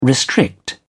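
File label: "restrict", English, British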